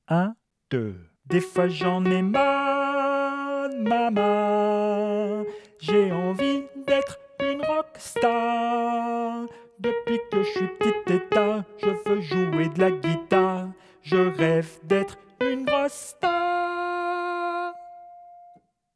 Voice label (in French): piano voix; refrain chanson , voix seule; Radio France